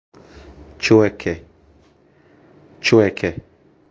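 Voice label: "Tsoeke", Estonian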